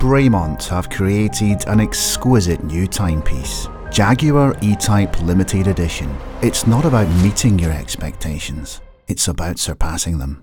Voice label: Cinematic Mixed; Bremont

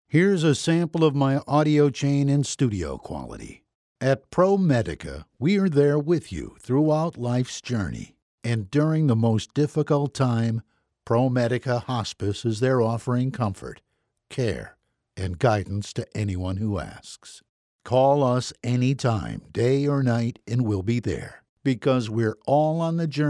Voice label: Mic-Studio Sample

